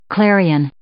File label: "clarion", English